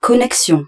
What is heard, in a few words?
French, Contact